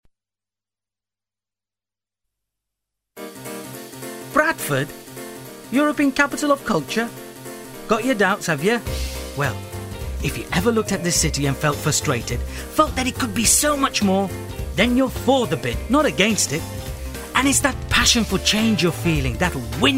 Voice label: commercial reel